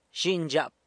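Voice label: "daughter", Zapotec; zhinyzhyap; San Lucas Quiaviní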